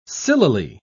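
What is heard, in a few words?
"sillily", British English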